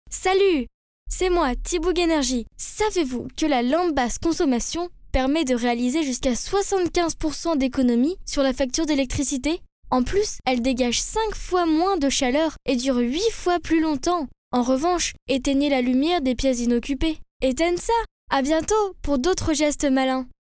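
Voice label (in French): French, female, commercial; voice demos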